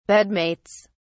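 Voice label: "bedmates", English, British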